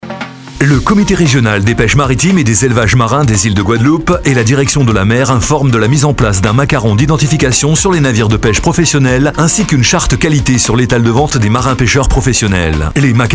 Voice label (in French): Spot radio; macaron